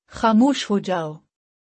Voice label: Be quiet, urdu; Simple Commands